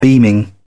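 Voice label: "beaming", English, British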